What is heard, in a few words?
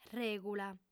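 "règula", female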